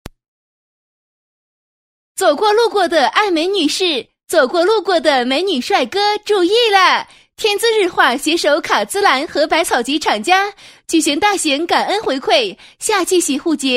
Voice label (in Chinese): 叫卖, 国语女声配音; 彩铃广告; 走过路过的爱美女士